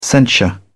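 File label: "censure", British English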